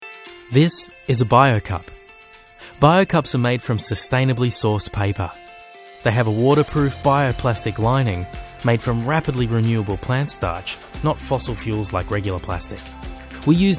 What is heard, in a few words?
Corprate